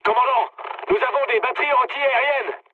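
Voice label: French damaged aa mood med; War Thunder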